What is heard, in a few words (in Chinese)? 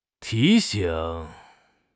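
"ti2 xing3", Chinese; 汉语口语新时代-初级下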